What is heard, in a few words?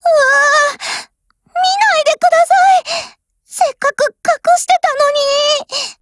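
BREAK, Japanese; GF Alarm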